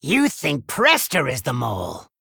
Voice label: Male Gnome Victory; Scabbs; Mission1 Victory